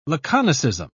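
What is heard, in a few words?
"laconicism", British English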